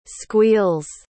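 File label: "squeals", English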